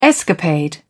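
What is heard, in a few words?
"escapade", British English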